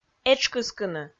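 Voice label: "этш кыскыны", Komi, female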